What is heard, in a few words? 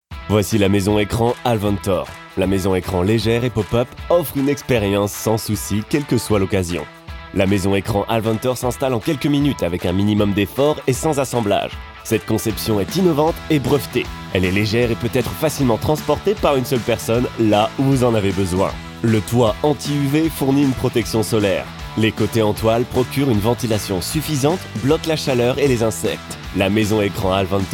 french promos